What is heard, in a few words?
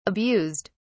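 "abused", English, British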